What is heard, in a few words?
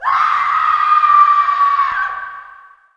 woman; Mafie 1 cz